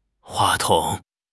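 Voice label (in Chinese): "话筒", Chinese; HSK6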